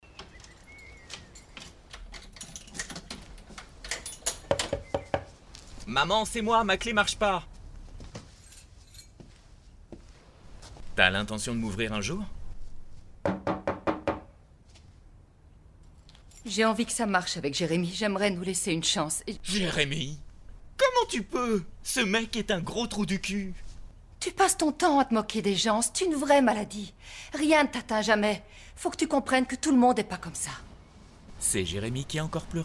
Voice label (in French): character international dubbing, french voice over; Misfits